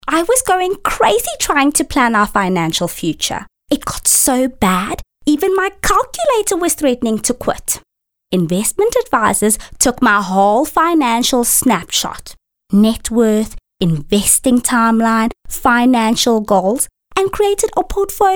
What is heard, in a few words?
Up Market South African Accent